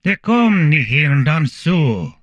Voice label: humanoid male; Stellaris; humanoids